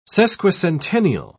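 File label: "sesquicentennial", British English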